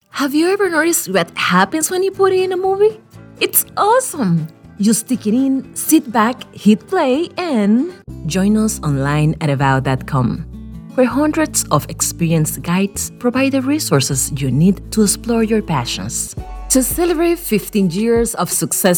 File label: Voice Over Commercial EN